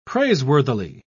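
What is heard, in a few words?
"praiseworthily", English, British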